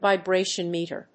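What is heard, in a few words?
"VIBRATION METER", English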